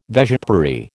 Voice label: "vespiary", American